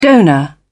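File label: "donor", British English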